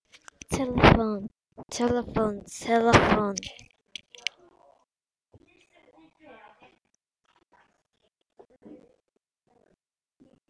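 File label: "telephone", spoken word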